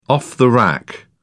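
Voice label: "off-the-rack", British English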